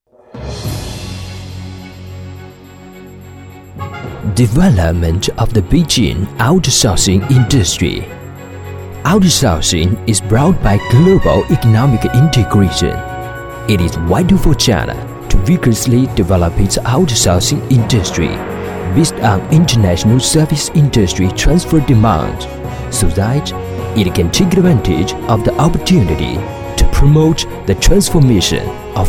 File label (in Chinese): 英语男; Outsourcing